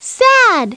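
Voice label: "sad", English; Treasure Galaxy (1994); Learning Company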